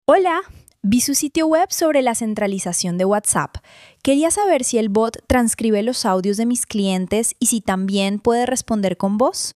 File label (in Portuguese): audio cliente espanhol